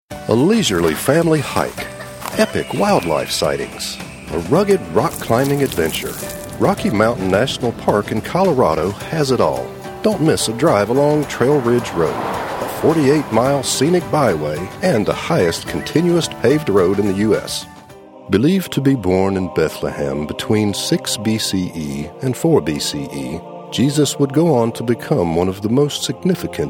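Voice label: Professional American Male Voice Over Talent; rich, resonant voice with the warmth and grit of the American South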